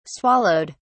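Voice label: "swallowed", British English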